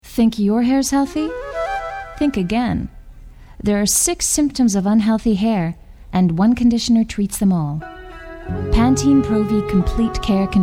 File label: commercial female